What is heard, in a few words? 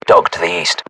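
British threat dog east, battlechatter, english; uk; localized english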